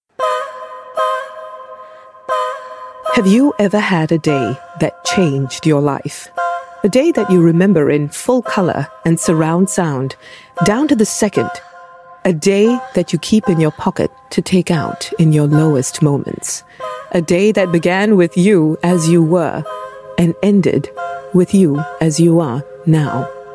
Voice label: Asian English; Aware Saga Intro